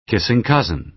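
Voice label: "kissing cousin", American English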